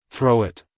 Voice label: "Throw it" US English, male; everyone-can-use-english